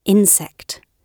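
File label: "insect", British English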